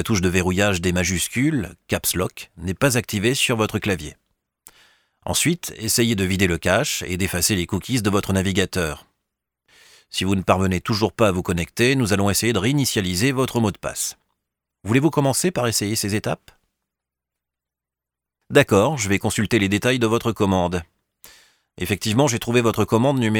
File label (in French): Voix pour SVI et SAV; Assistance Technique